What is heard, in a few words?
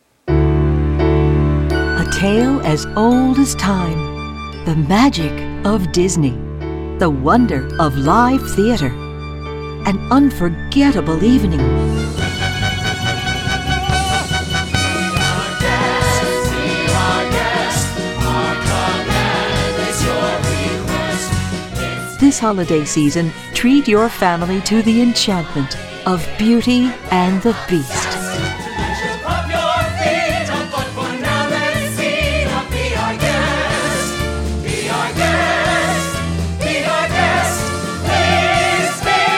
Promo; Beauty and the Beast